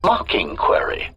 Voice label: mocking query